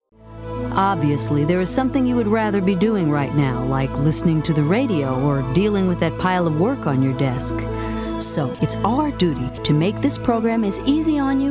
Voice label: Narration